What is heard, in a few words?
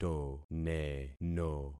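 "toneno", concatenated syllables; Affixing experiment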